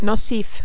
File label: "nocif", French